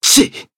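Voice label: Voice damaged, Japanese; zeviro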